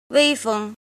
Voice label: "jin", Chinese

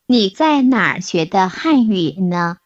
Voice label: Mandarin Conversation

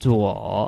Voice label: "zuo3", Chinese

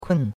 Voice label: "kun4", chinois; Cours-de-Chinois

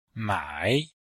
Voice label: "mai3", Chinese

